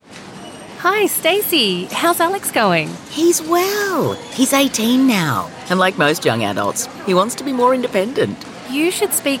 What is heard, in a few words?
supported living radio ad